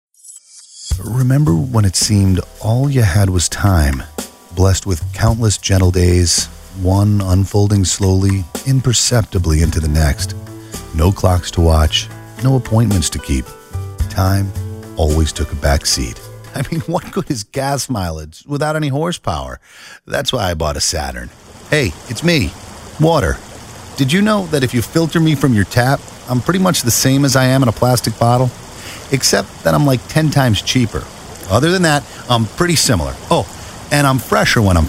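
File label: TV Commercial voice over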